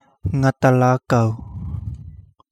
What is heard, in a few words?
Kapingamarangi; sweeperfish